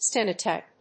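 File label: "Stenotype", English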